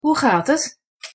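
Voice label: "hoe gaat het", Dutch; język niderlandzki podstawowe zwroty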